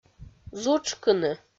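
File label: "зутшкыны", Komi, female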